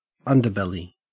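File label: "underbelly", Australian English; pronunciations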